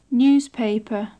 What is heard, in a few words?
"newspaper", English